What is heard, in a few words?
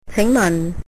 excuse me, cantonese